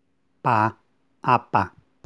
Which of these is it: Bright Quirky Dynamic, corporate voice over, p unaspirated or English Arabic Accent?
p unaspirated